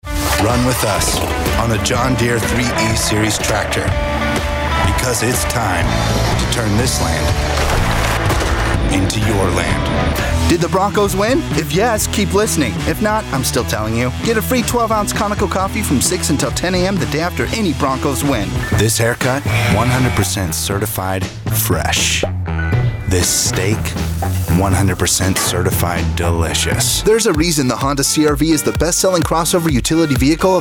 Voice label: Commercial, Male voices